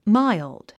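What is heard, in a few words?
"mild", English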